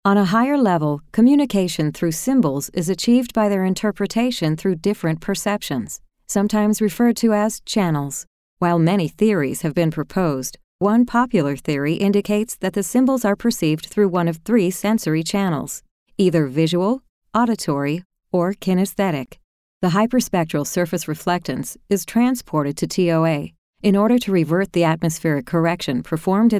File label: eLearning